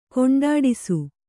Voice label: "ಕೊಂಡಾಡಿಸು", Kannada; koṇḍāḍisu; (Verb)